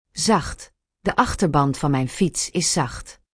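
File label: "zacht", Dutch; Spelling Gr4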